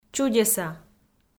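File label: "чудеса", Komi, female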